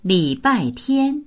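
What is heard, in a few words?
"li3bai4tian1", Mandarin Chinese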